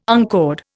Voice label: "uncord", Indian

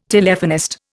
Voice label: "Telephonist", Indian

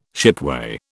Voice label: "shipway", British

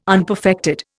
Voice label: "unperfected", Indian

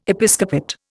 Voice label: "Episcopate", Indian

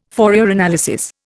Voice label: "Fourier analysis", Indian

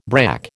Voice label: "brack", American

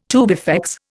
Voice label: "tubifex", Indian

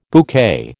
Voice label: "bouquet", American

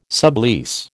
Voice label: "sublease", American

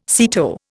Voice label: "SEATO", Indian

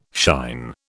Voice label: "shine", British

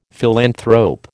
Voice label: "philanthrope", American

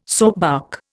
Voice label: "soapbark", Indian

